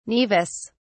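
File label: "naevus", British English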